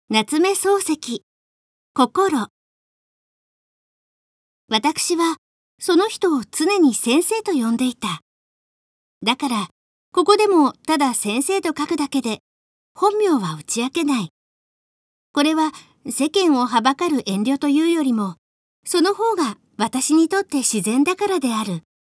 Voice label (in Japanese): girl